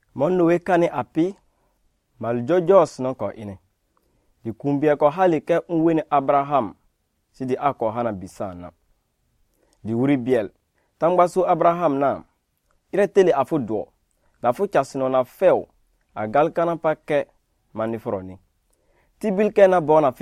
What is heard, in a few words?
Lobiri; Ulfɛrɛ; A Child for Sarah